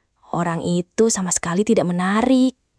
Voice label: indonesian phrases